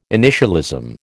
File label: "initialism", American